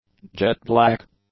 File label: "jet-black", english; adjectives